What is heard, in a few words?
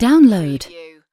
"download", UK female; lynne publishing